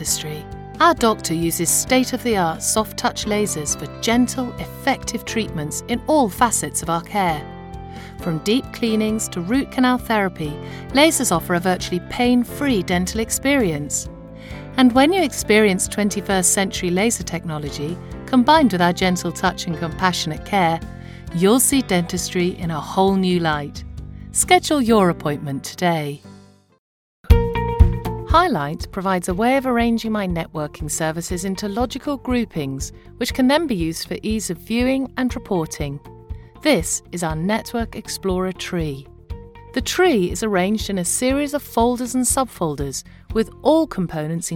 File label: corporate explainer